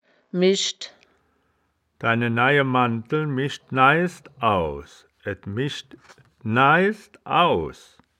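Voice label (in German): "mischd", wittlicher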